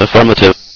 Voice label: affirmative, festivox male